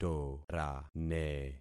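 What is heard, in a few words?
"torane", concatenated syllables; Affixing experiment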